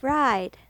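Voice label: "ride", English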